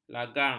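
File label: "lagang", Malay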